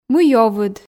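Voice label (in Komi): "мый овыд", Komi, female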